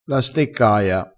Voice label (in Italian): "steccaia", crespinese